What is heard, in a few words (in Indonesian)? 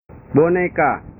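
"boneka", Indonesian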